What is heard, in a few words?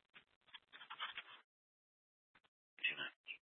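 Police Department North Dispatch, scanner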